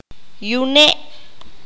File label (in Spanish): "hijo de mujer", Q'anjob'al